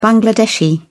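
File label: "bangladeshi", British English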